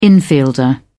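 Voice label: "infielder", British English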